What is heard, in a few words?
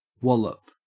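"wallop", Australian English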